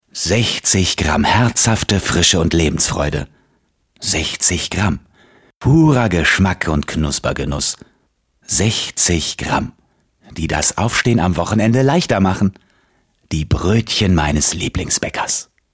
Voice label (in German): werbung, deutsch maenner; sprecher